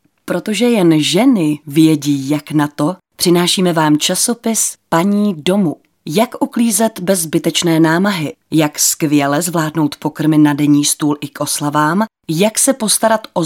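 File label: female Czech commercial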